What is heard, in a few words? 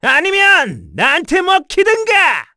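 Vox Skill, Korean; Bernheim; kingsraid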